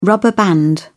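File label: "rubber band", British English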